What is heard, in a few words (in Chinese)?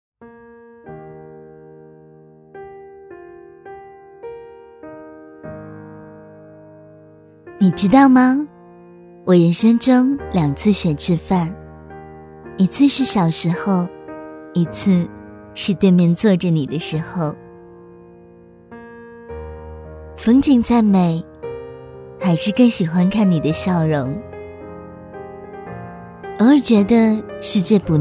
文艺 台湾腔 旁白类 温柔 甜美 音乐